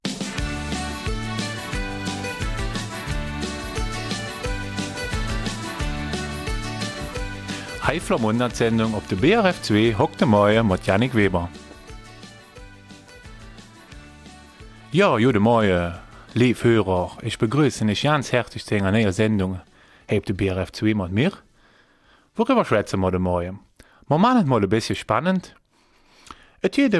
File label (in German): Eifeler Mundart; Kegeln als professioneller Sport